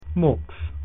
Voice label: latin soon

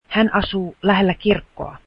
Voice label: she lives near the church, finnish

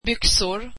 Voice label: trousers, swedish

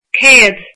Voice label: one hundred, irish

